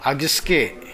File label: "agisuke", minamiyamagata